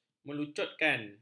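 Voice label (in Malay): "melucutkan", Malay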